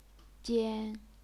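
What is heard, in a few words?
"jian", Chinese, first tone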